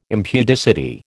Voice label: "impudicity", American